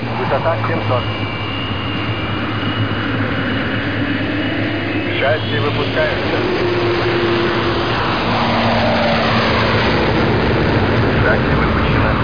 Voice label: RUSSIAN, airfield; Warplanes - Modern Fighting Aircraft

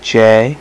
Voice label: "j" male; alphabet; Web Clip Empire 150,000

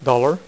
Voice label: "DOLLAR", Dealer's Speech; PowerPOKER Demo

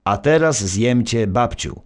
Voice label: narr; Szkola Koziolka

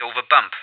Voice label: OVER BUMP, English, CoDriver

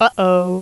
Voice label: Uh Oh; Icebreaker

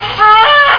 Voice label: Sensual Women; Desktop Studio 2000; People

